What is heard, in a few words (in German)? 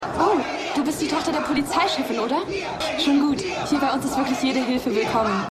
Junge Frau Bei Versammlung